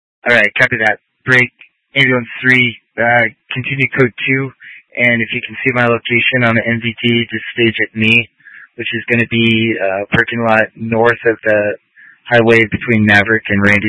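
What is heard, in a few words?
Incident Tac 1, Scanner audio recording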